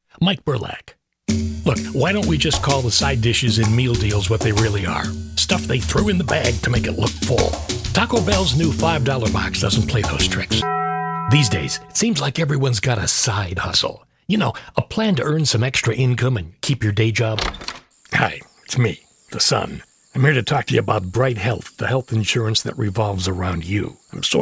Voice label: commercial

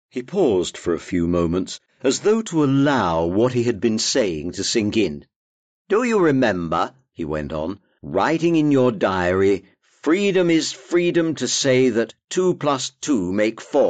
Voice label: Audiobooks

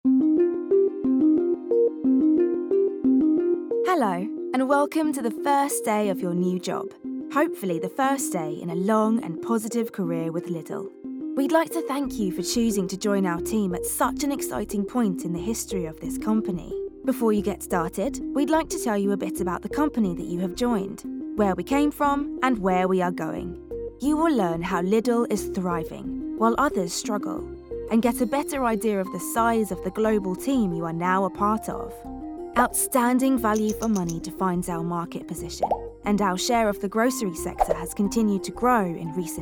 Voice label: Explainer; Lidl

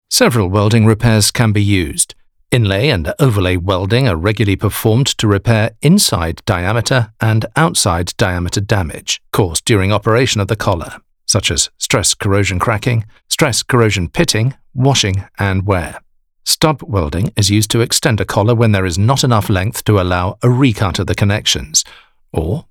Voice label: E Learning modern authentic

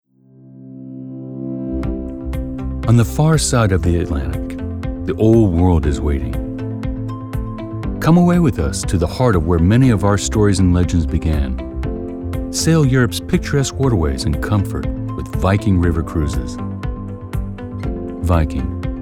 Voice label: Commercial

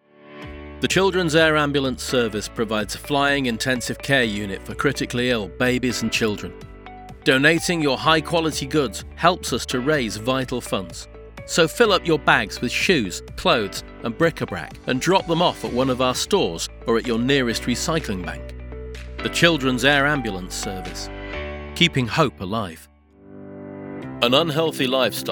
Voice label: Commercial

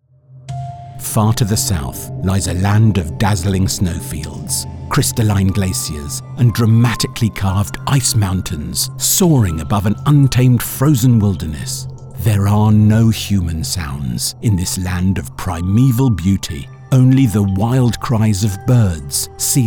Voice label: Narration Voice

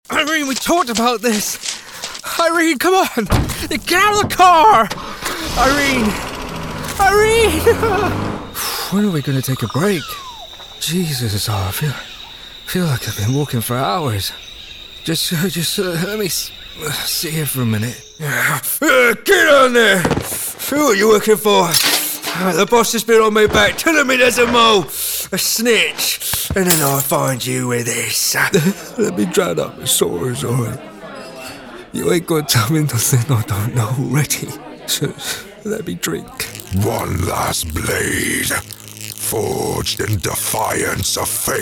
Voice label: character

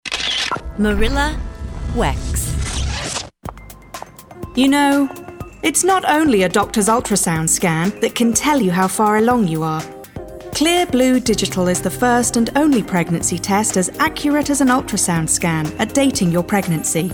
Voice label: commercial voice

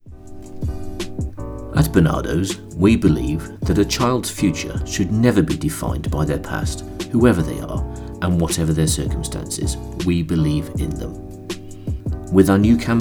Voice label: Ad Voice

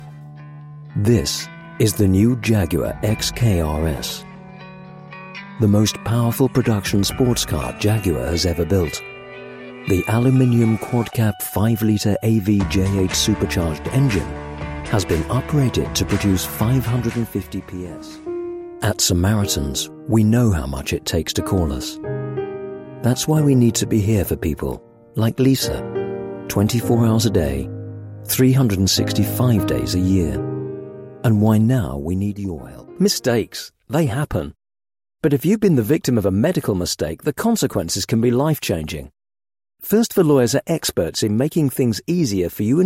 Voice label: Commercials documentaries

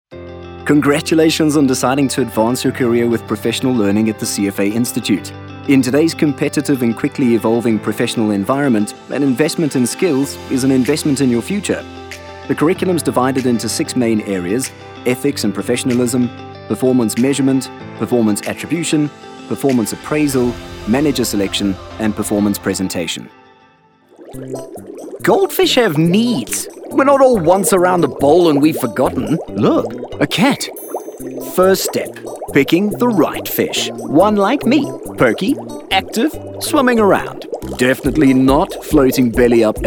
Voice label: eLearning

